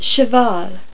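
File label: "cheval", French; Virtual Casino: Secret Fantasies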